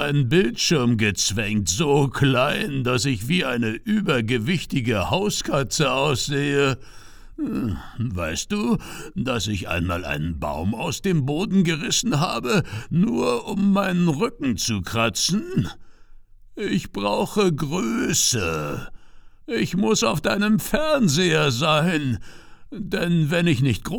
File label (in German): grizzly, German